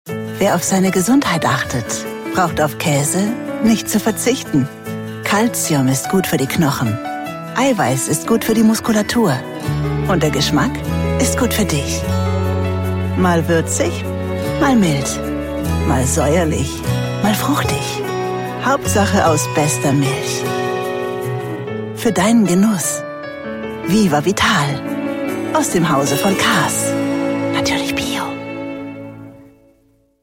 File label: german commercial